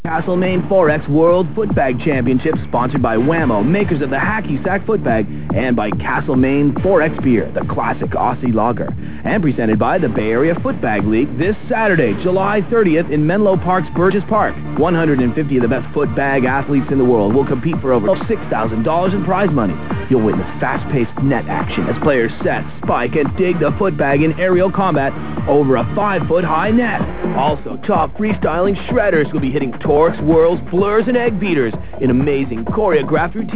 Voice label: commercial; live105